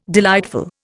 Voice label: "delightful", Indian